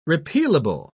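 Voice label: "repealable", British English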